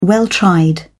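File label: "well tried", British English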